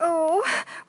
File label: "oh" female